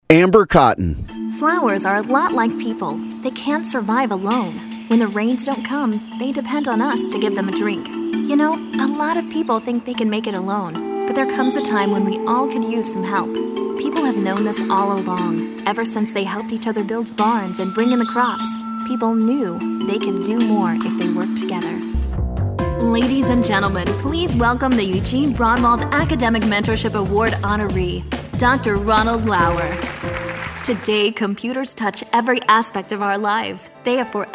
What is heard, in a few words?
voiceover narration women